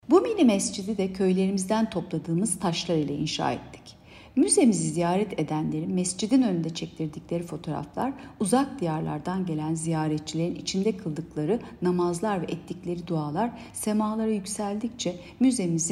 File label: turkce